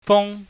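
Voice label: "fung", Cantonese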